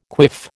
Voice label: "quiff", American

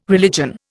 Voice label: "religion", Indian

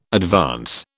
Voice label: "advance", British

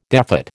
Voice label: "defat", American

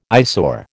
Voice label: "eyesore", American

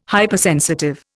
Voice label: "Hypersensitive", Indian

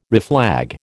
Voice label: "reflag", American

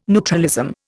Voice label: "neutralism", Indian

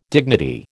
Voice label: "dignity", American

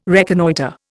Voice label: "reconnoitre", Indian

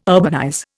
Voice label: "urbanize", Indian

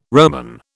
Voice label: "Roman", British

